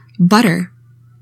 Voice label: "butter", english; 3000 words

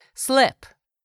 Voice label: "slip", English; 3000 words

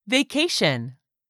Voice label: "VACATION", English; 3000 words

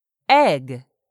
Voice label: "egg", English; 3000 words

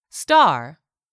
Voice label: "STAR", english; 3000 words

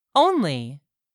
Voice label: "only", English; 3000 words

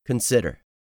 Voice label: "consider", English; 3000 words

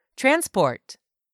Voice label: "TRANSPORT", english; 3000 words

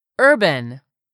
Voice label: "URBAN", English; 3000 words

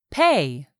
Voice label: "PAY", English; 3000 words

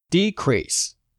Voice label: "decrease", English; 3000 words

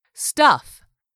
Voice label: "stuff", english; 3000 words

